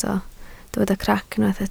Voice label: "crac", Welsh